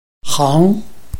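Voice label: "hang", Chinese, tone 2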